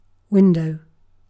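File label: "window", British English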